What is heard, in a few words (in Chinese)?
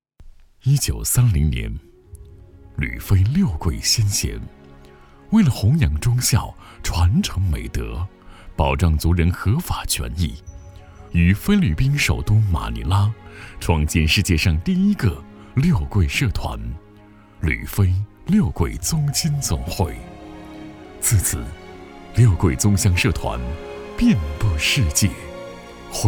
纪录片; 纪录片六桂男国样